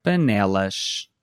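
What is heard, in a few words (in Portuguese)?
"panelas", português europeu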